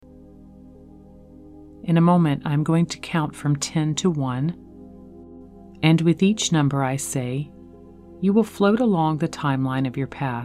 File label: Guided Meditation; Higher Self Guided Meditation; multidimensional meditation experience; 432hz